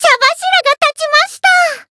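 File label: Voice growth, Japanese; myungwha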